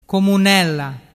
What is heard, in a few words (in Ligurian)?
"comunella", dialettu